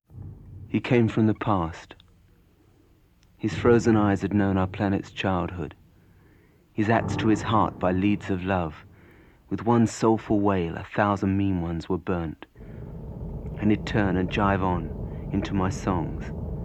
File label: poem and radio advert; us radio promo